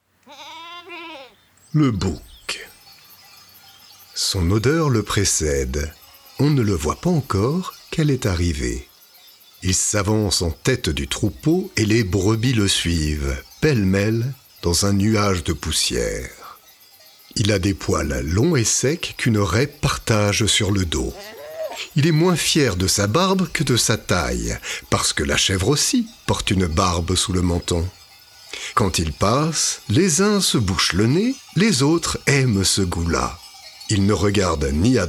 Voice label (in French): narration; le bouc